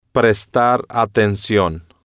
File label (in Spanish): "prestar atencion", Spanish